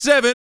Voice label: COUNT, Vocal, R&B & Dance; ILIO